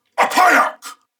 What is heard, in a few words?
Attack Male, en; Dialog; Barks; Battle; Corpus Venus; Warframe; CorpusVenusMaleB